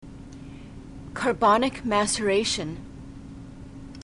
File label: "carbonic maceration", glossary